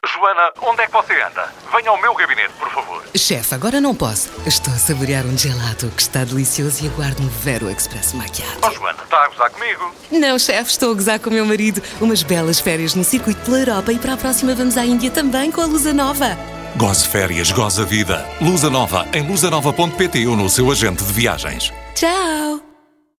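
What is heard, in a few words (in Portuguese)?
SPOT RADIO, Portuguese; LUSANOVA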